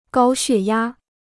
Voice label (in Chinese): "高血压", Chinese